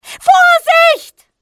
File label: watch out, civ fmale; XBOX